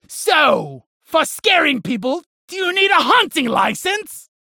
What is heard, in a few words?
Halloween Slap Ghost Spacerig